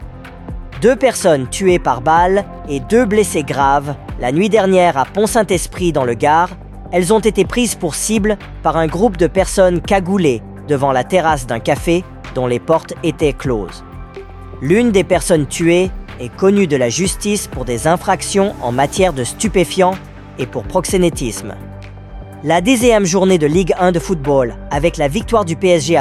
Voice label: fr radio news